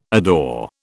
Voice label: "adore", British